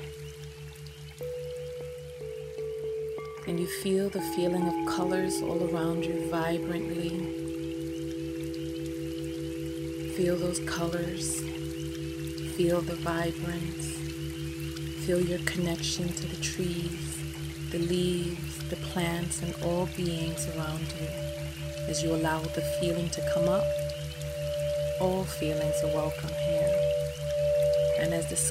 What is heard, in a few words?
RELAX SAMPLE Hypnotics